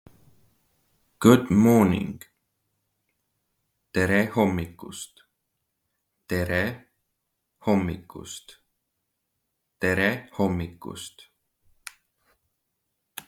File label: Good morning, Estonian; the talking estonian phrasebook